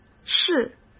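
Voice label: "试", Mandarin Chinese; chinese-flashcards; hsk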